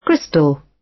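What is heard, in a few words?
"crystal", British English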